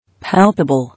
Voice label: "Palpable", English